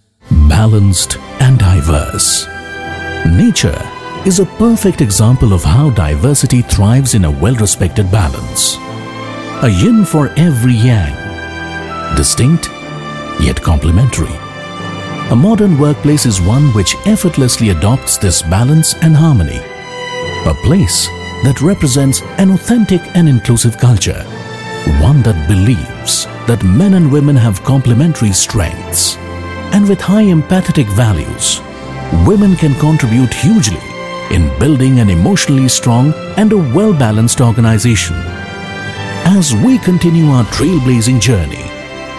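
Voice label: English M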